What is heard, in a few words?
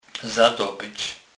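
"zadobyc", Kurpie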